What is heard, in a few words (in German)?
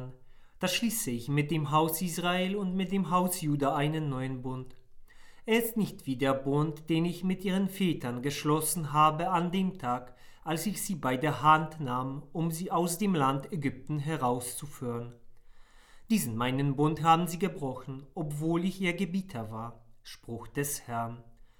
Lesung; 5. Fastensonntag B